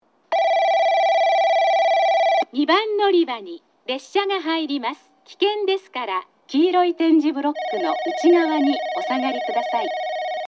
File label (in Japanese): 2番のりば接近放送; 簡易放送